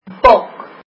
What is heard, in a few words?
goat, afrikaans